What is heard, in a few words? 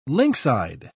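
"lynx-eyed", English, British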